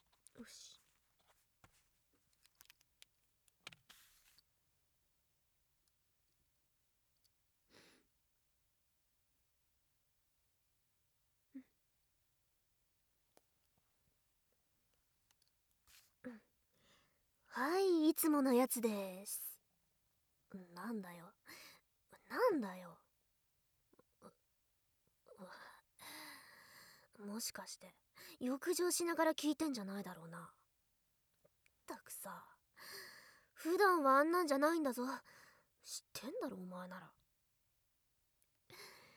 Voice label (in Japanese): スペシャルプラン加入者・お名前読み上げトラック